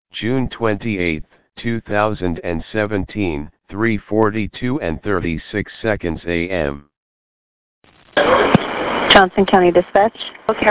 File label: 911 call